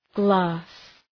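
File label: "glass", English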